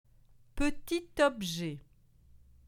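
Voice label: "petit objet", French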